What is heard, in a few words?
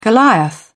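"goliath", British English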